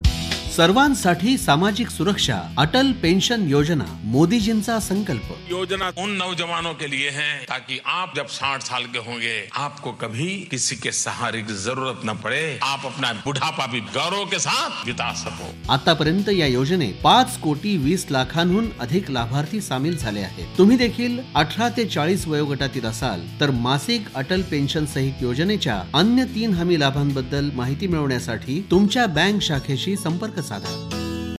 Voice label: Atal Pension Yojana, Marathi